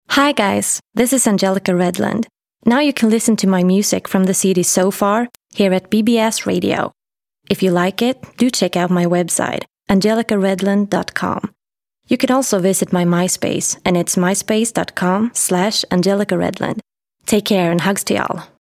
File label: bbs radio promo